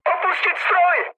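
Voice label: bailout, Czech, mood med; War Thunder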